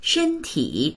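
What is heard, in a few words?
"shen1ti3", Chinese; shēntǐ; 身体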